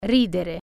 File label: laugh, italian